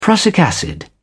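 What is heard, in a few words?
"prussic acid", British English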